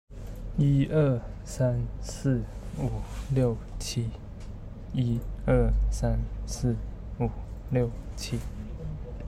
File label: chinese